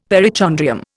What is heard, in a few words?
"perichondrium", Indian; Dictionary